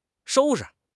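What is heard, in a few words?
"shou1 shi5", Mandarin Chinese; HSK; HSK4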